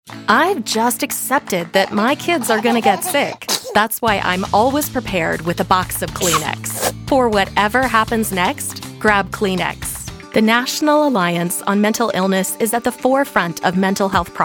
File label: Commercial